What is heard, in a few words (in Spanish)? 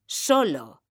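"SOLO" Spanish, female; mekanta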